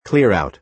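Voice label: "clear-out", American English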